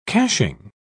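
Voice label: "caching", British English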